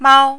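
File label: "mao", Mandarin, first tone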